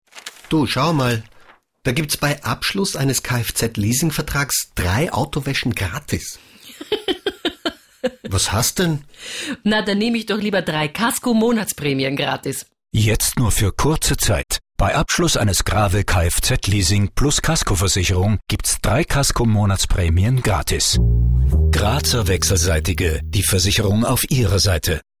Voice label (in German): Radio Spot Leasing; GRAWE AUTOMOBIL